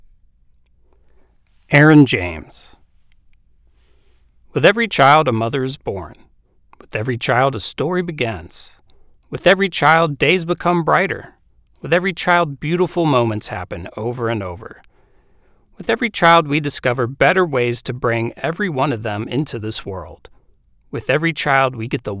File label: Children's Hospital ad read